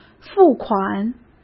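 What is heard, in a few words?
"付款", Mandarin; chinese flashcards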